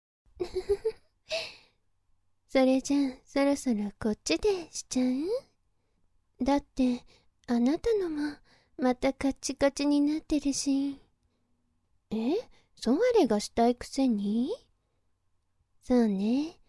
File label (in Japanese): ボイスのみ; ソワレ